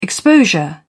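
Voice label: "exposure", British English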